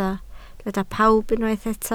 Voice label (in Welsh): "pawb", Welsh